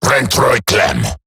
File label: Attack Eximus Grineer Male; Battle Barks